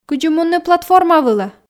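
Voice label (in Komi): кыдзи мунны платформа вылӧ, Komi, female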